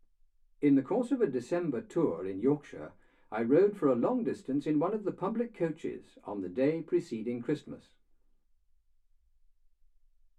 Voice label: male speech english